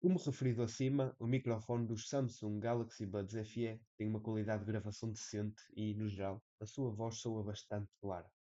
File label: samsung galaxy buds fe mic test